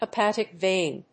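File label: "Hepatic Vein", English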